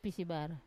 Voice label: "pisibara", lizard, wamesa

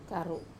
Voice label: "karu", back, wamesa

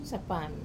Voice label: "sapami", grasshopper, wamesa

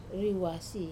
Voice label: "ruase", to divide, wamesa

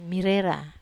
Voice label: marera, wamesa

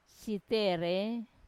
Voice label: "sitere", they fall on, wamesa

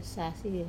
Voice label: "sasi", salt, wamesa

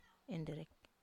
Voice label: enderek, wamesa

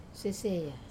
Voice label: "seseya", quiet, wamesa

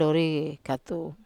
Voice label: andori katu, wamesa

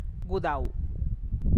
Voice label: "gix xten bared", Teotitlán del Valle Zapotec

